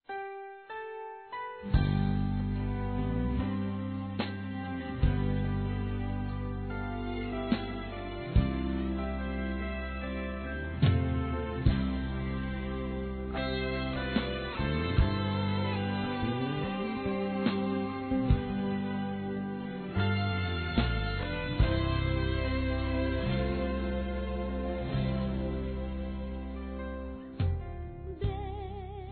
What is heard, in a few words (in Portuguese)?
Mensagem Pai Masculino